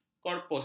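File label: "korpus", Malay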